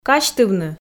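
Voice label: "казьтывны", Komi, female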